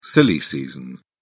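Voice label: "silly season", British English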